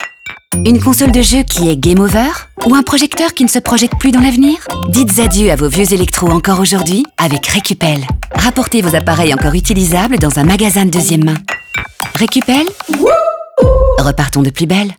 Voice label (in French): magasin de deuxiemme main radio; recupel; fr